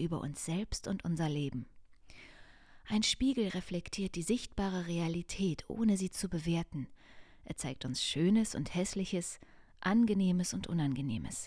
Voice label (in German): Tarot Buchauszug